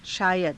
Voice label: "shaayada", Hindi